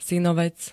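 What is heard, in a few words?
"synovec", Slovak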